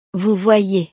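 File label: "vous voyez", French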